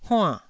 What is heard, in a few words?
"huann4", taiwanese syllable